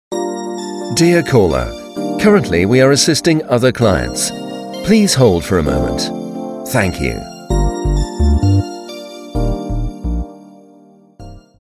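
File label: uk, male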